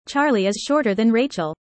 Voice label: user fast